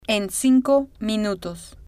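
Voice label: "en cinco minutos", Spanish, female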